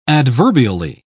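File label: "adverbially", British English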